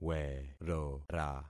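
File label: "werora", concatenated syllables; Affixing experiment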